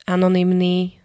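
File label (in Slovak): "anonymný", Slovak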